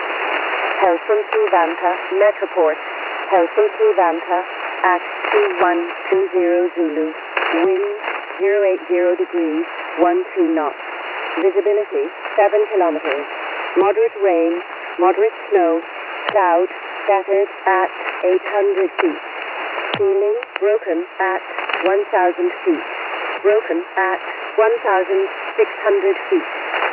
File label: Volmet, 8957; Shannon; websdr